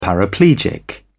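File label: "paraplegic", British English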